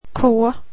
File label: swedish alphabet k